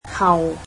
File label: after, cantonese